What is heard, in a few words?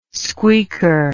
"squeaker", English